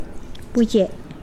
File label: excrement, Mokilese